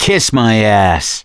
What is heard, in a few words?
reaction negative, voice; gambler